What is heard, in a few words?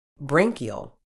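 "branchial", British English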